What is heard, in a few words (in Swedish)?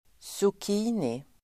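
"zucchini", Swedish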